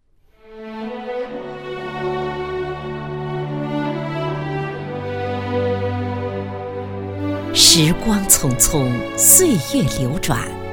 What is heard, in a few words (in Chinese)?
专题 政府 平安 抒情, 女国; 绍兴